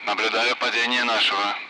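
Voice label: Speech, Russian; Allied Flight; member down; DCS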